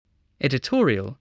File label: "editorial", English